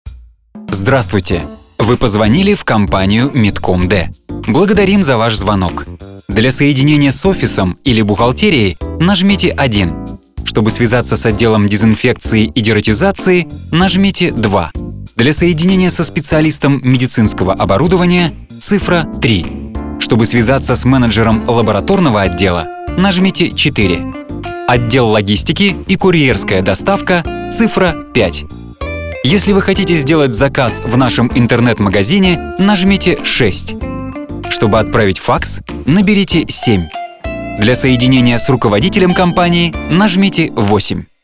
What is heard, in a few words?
IVR